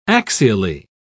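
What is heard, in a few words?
"axially", British English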